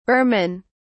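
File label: "burman", British English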